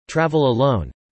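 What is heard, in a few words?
"travel alone" spoken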